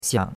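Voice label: "xiang3", Mandarin Chinese; Cours de Chinois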